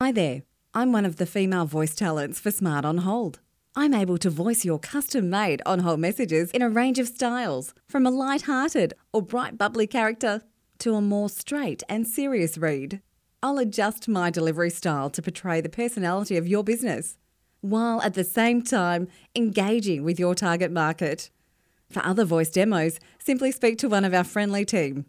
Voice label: female